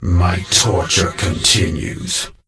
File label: Death Knight Male Low Pissed